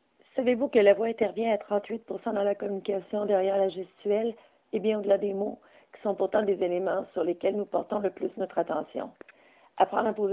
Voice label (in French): Voix mal appuyee